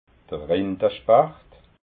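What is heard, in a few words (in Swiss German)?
"rindaspacht", Alsatian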